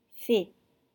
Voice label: "fée", French